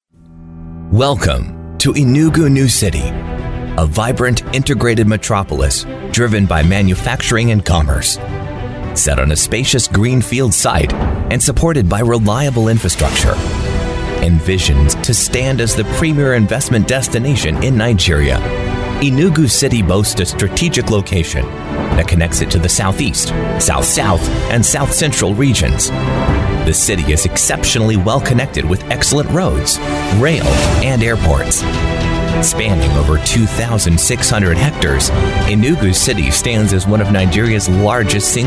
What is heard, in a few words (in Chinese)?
英式美式 专题